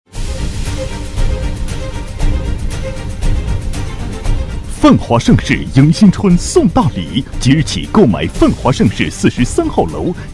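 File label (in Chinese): 【广告】男-激情; 范华盛世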